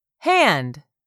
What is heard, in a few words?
"HAND", english; 3000 words